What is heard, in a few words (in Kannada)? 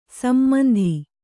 "ಸಮಂತು", Kannada